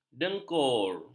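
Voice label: "dengkur", Malay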